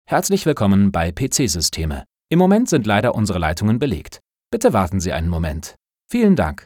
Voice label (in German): Anrufbeantworter